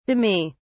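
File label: "demi", British English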